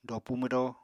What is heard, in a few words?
"dopu mwdóo", Apatani